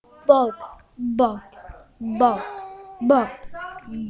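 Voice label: "bog", spoken word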